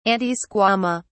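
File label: "antisquama", British English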